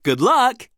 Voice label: Emote Good Luck; Quincy

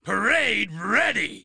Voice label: taunt, shine em up; striker

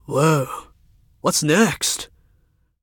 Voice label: Emote Thinking; Zee Jay

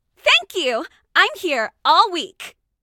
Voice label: Voice Pack Positive; Amelia the Amazing

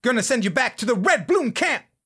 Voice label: taunt rap; BTDB2; djben

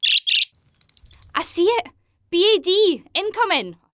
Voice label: bad warning v o

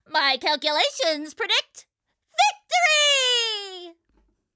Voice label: taunt whiteboard; science; Scientist Gwendolin (Battles 2)

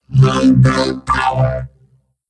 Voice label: victory robo vo; churchill; sentai; monkey and machine

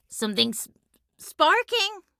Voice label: Emote Thinking; Gwen; Voice Pack

